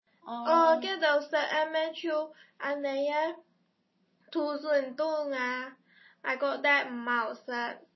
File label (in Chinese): 客家话; 呃，那些吃的不就这样的土笋冻啊，我觉得不好吃。